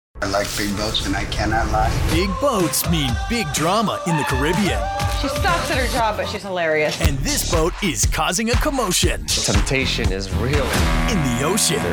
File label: Promo